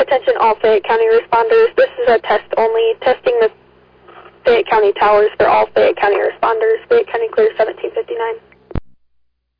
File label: all call, dispatch; Fayette